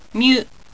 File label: "myu", japanese